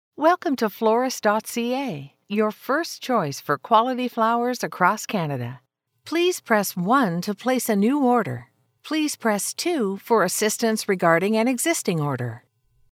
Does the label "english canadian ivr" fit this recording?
Yes